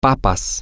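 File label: potatoes, Spanish, male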